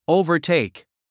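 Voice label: "overtake", English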